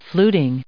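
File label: "fluting", US English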